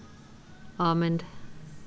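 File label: "almond", British female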